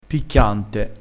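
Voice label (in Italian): "picchiante", dialettale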